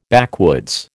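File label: "backwoods", American English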